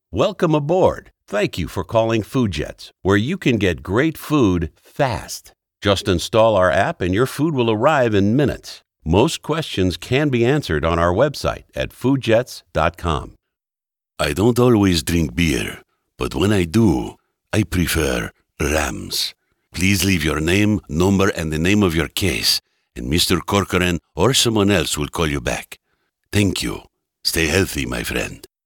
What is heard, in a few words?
male; TELEPHONE VOICE OVER TALENT